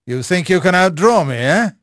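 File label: English male; Pirates Of The Caribbean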